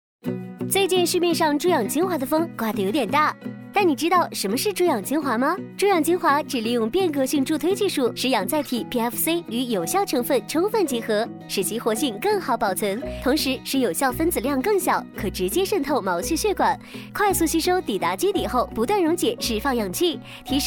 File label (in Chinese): 轻快 美容产品